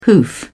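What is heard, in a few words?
"hoof", British English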